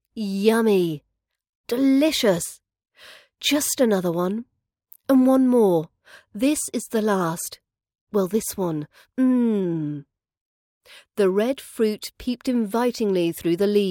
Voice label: little red riding hood rp british accent listening and speaking practice